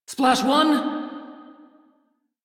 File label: Crew Gun Kill Fighter, Female; Dialog; en; Warframe; Railjack Crew; Steel Meridian